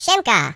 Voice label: Hello, voices, pl; hedgewars; Default pl